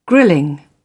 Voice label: "grilling", British

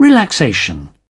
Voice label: "relaxation", British

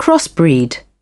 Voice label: "crossbreed", British

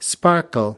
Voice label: "sparkle", us

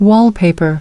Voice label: "wallpaper", us

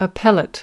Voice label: "appellate", us

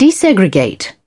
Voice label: "desegregate", British

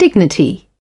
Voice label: "dignity", British

